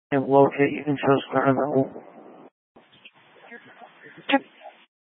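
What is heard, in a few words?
Fire Dispatch, scanner audio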